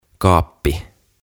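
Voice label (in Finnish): "Kaappi", suomeksi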